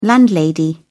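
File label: "landlady", British English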